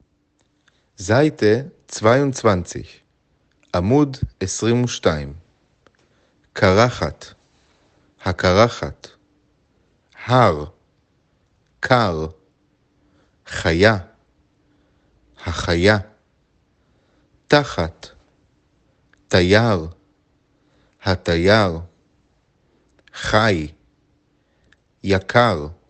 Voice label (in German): Neuhebräisch; otijot bekef